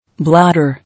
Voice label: "Bladder", English